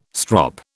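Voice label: "strop", British English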